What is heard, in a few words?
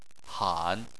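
"han", Chinese, third tone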